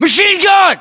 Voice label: US threat mg, battlechatter, english; localized english

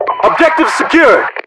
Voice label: secured obj, US voiceovers

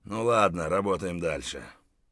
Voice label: mutter; Escape from Tarkov

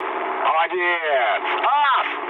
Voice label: trick, speech ru

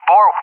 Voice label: "Boar", ENG, AWACS; Callsign; DCS

